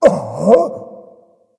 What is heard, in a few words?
surprise; Smite; cabrakan